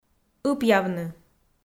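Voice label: "ыпъявны", Komi, female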